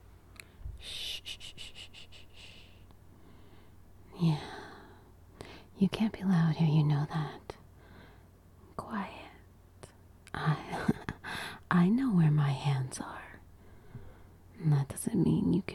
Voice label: Shhhh, Erotic audio